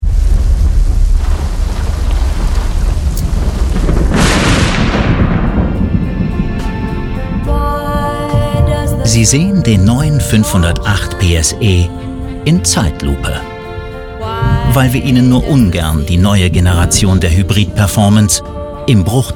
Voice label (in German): Werbung TV; Peugeot